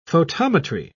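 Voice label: "photometry", British English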